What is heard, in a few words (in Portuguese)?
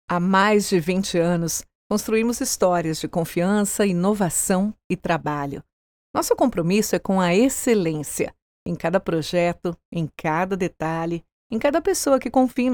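Brazilian Portuguese, Institutional; GoLocalise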